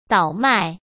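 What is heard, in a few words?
"倒卖", Mandarin Chinese; chinese flashcards; hsk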